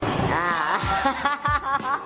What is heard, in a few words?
helium